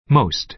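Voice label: "most", American English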